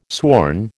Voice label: "sworn", American

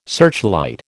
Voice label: "searchlight", American

